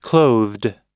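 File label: "clothed", American English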